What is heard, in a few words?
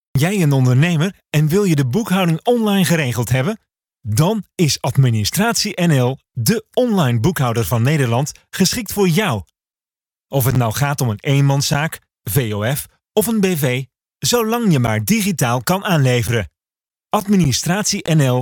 Male, Dutch